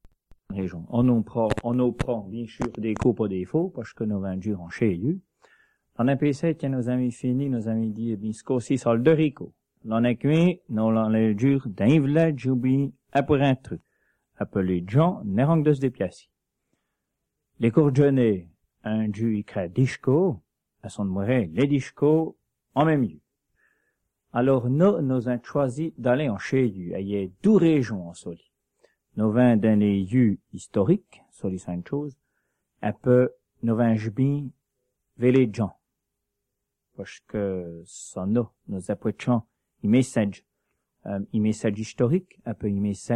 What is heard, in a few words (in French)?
Emission en patois